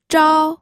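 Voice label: "zhao1", Mandarin Chinese pinyin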